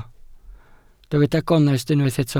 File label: "gonest", Welsh